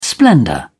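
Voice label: "splendour", British English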